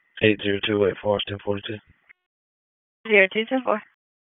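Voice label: Police Tactical, P25; Wake Forest; Tac 1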